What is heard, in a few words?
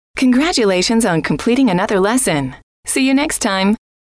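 congratulations on, female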